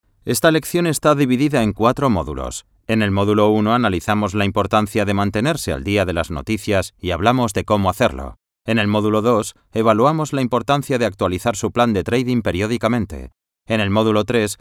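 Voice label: Spanish, male, e-learning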